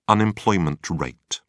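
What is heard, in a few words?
"unemployment rate", British English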